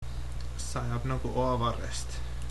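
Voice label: rain, Estonian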